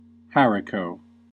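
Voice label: "haricot", US English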